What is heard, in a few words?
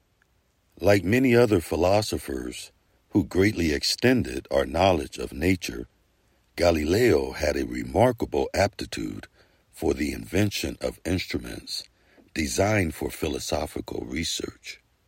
Narration Natural Tone